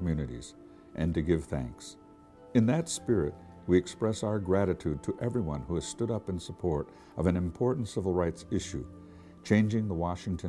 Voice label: Thanksgiving radio spot